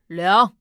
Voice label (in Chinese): 胖小孩倒数